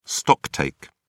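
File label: "stocktake", English, British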